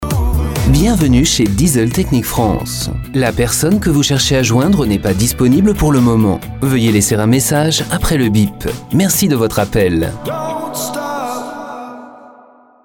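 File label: French telephone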